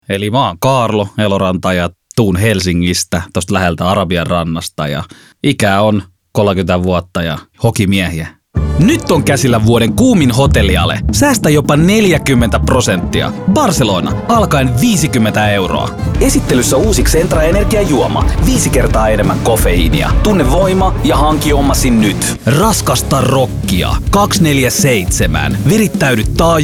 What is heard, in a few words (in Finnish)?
Voice Demo mainosmusiikilla; Ääninäyte